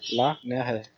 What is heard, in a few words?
please, wolof